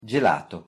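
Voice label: "gelato", pronunciation Italian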